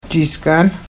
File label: "jiis'kaan" pronunciation; Aadsookaan related words